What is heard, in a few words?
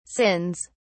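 "sins", British English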